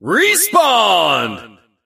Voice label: announcer respawned